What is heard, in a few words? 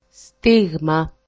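"stigma", Greek; i.p.a. for greek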